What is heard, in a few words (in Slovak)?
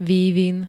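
"vývin", Slovak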